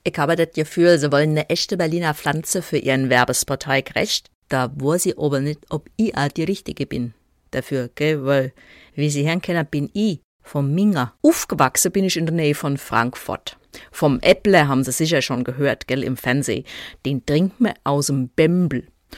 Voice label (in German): dialekte und akzente mix berlinerisch bayerisch hessisch russisch franzoesich; Muttersprache Deutsch